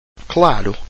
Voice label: "claro", Portuguese